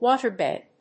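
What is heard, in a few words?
"water bed", English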